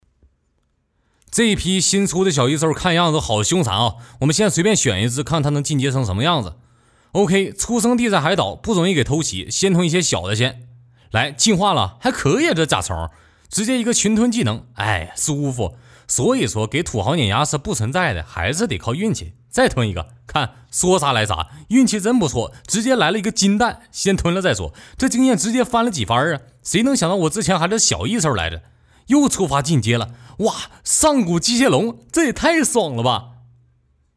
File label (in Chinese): 东北话 游戏; 东北话游戏异兽